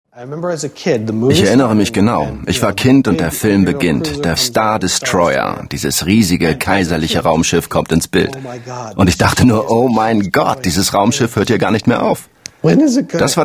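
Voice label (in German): voiceover, deutsch männer; sprecher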